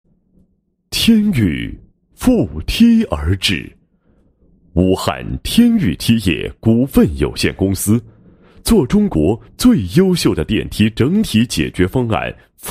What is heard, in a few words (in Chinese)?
男 公司企业 宣传介绍; 天域梯业电梯公司